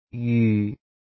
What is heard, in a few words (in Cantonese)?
"jyu6", cantonese